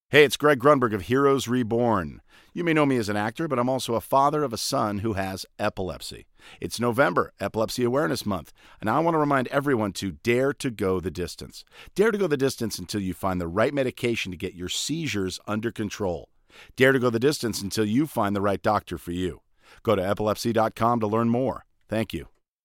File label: spoken word; lewitt; lct940 tube